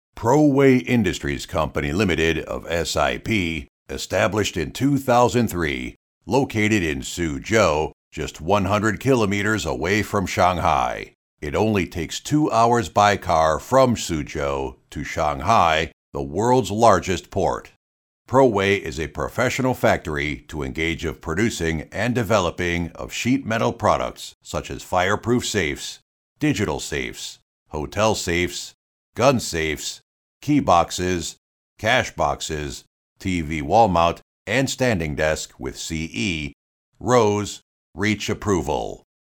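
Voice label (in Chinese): 外籍英语美式宣传片大气磁性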